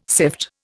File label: "sift", Indian